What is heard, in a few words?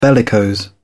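"bellicose", British English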